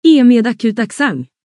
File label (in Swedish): e med akut accent